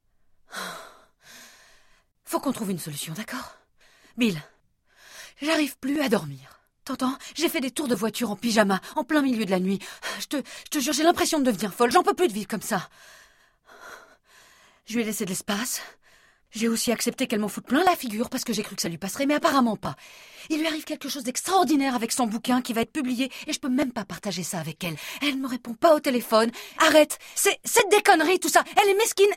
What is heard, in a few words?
Character, FRENCH FEMALE